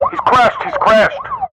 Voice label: emergency police radio male officer in car sirens hes crashed hes crashed